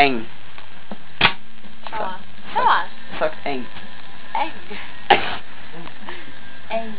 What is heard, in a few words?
Nasal